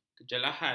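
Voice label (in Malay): "kejelahan", Malay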